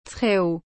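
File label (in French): "très haut", French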